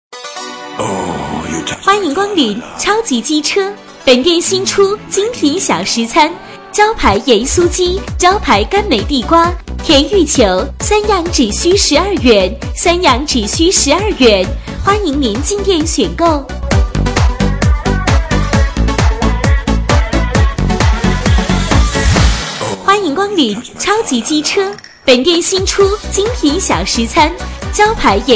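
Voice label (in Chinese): 女促销; 超级鸡车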